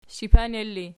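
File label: shipaner ergative, Archi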